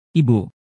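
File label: "ibu", Indonesian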